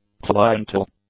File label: "cliental", English; adjectives